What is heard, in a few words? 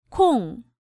"Kong", Korean